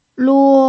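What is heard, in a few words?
"luo", first tone, Mandarin Chinese pinyin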